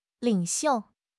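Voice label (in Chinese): "领袖", Chinese; HSK6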